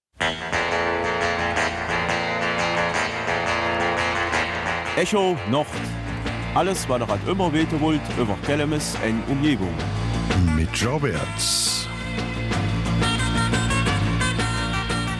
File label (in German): Kelmiser Mundart Theaterstück; Jott sei Dank! (Gott sei Dank)